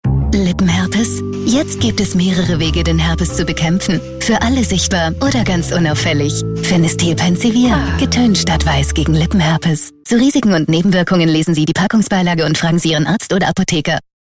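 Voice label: German soft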